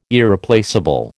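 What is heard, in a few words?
"Irreplaceable", American English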